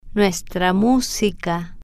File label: "nuestra Musica", spanish